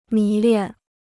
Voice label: "迷恋", Chinese